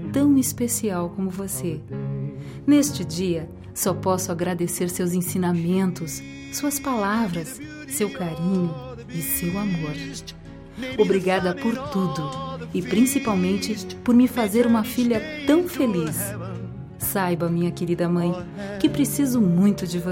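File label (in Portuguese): Voce e um exemplo para mae idosa female, telemensagem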